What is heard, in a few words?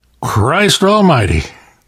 violence awe, survivor voice; source engine; left 4 dead 2; namvet